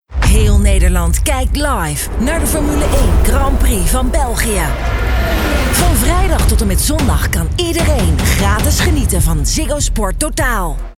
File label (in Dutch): NL trailer